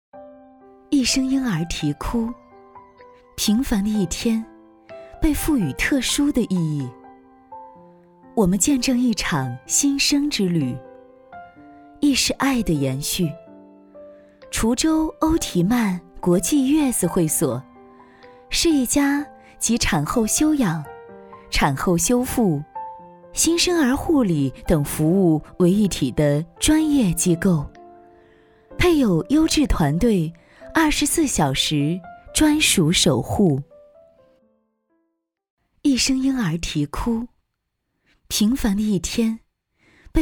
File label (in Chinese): 女,品质广告,月子会所; 滁州欧缇蔓国际月子会所